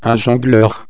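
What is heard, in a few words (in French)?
"jongleur", French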